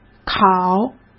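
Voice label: "考", Mandarin Chinese; chinese-flashcards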